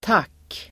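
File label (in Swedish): "tack", Swedish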